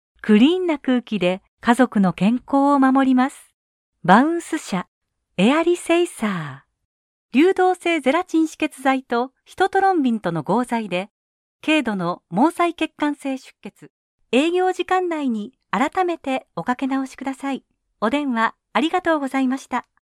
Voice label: locutora japonesa japanese voiceover; locutora de Japón

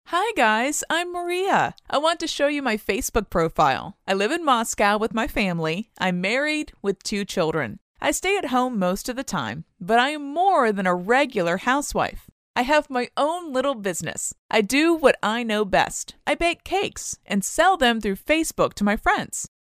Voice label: american voiceover locutora estados unidos

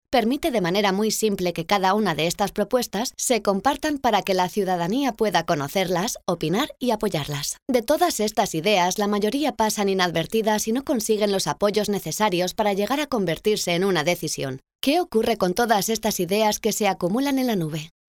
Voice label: locutora spanish voiceover

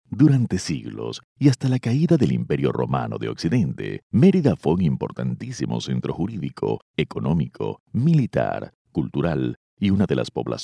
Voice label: venezuelan voiceover locutor venezolano

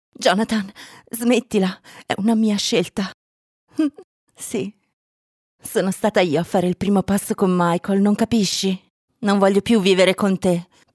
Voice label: locutora italiana italian voiceover

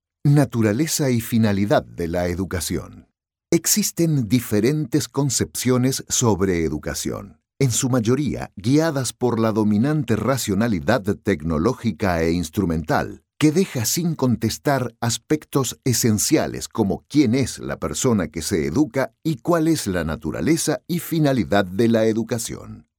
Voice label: locutor paraguay paraguayan voiceover; locutor de Paraguay

